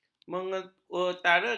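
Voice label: "menyetarakan", Malay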